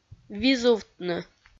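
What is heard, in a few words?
"визувтны", Komi, female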